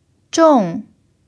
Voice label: "zhong4", Chinese

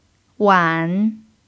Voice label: "wan3", chinese

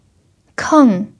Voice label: "keng4", chinese

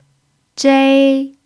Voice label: "zhei1", chinese

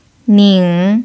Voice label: "ning3", Chinese

